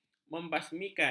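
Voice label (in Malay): "membasmikan", Malay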